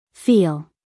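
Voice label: "feel", American English, female; everyone-can-use-english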